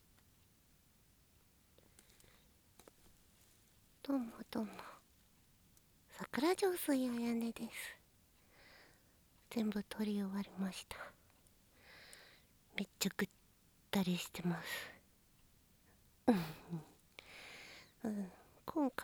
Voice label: トーク

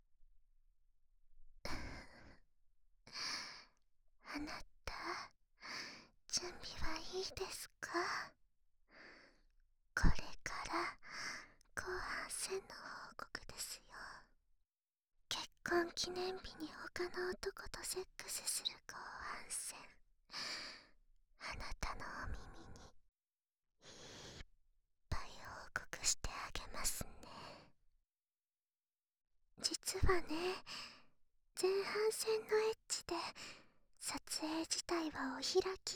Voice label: 左耳Ver(喘ぎ声なし); 【NTR妻水瀬苺】

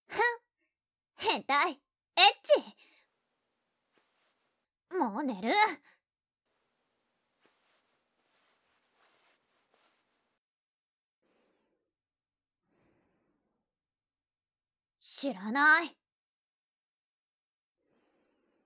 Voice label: 添い寝

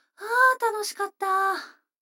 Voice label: 女の子 台詞, ASMR

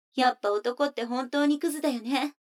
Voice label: 女の子 台詞, ASMR

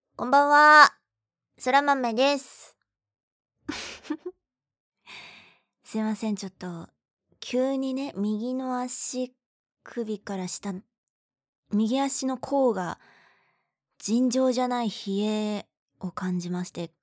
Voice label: ふりーとーーく

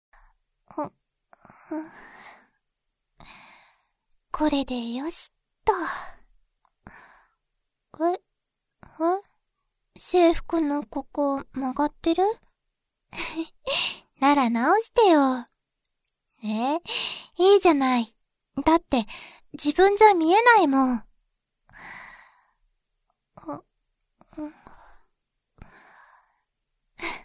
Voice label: ボイスのみ

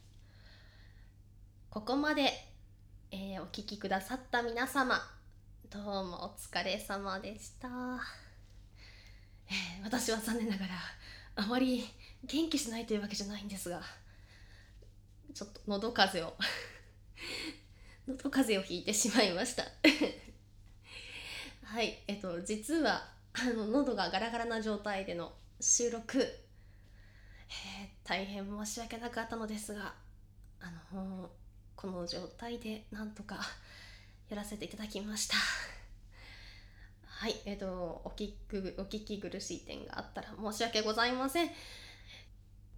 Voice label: 終わりの挨拶, バイノーラル

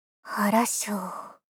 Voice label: 少女×低音～中音域; はらしょ～！

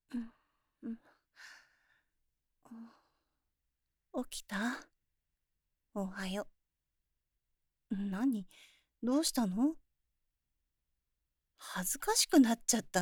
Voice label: それから～私と、一緒に～, ASMR

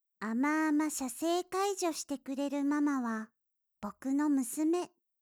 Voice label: タイトルコール; 効果音無し

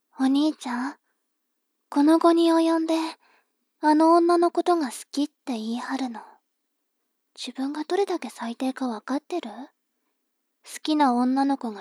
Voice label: 妹 台詞

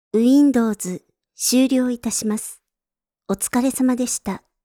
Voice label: Windows、終了致します お疲れ様でした, システムボイス; Windows等向けシステムボイス

